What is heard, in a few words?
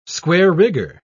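"square-rigger", British English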